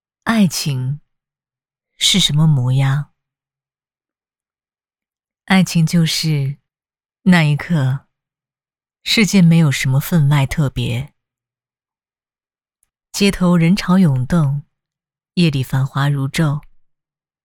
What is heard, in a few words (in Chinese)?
女 洋气 浪漫 婚礼小片